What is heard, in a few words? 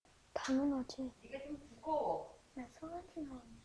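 "can", spoken word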